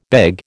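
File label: "beg", American English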